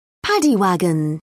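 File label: "paddy wagon", British English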